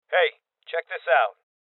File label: Voices Loot Spotted Male Young; rebel cops 2019